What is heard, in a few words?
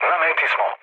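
Serbian near waypoint mood low; War Thunder; speech srb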